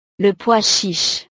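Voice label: "le pois chiche", French; verduras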